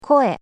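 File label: "koe", Japanese; nakama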